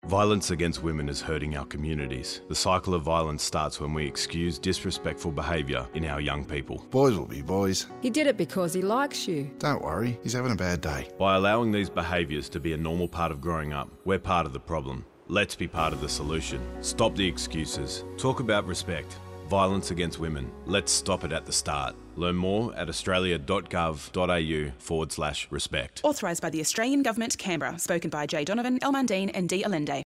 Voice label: Indigenous radio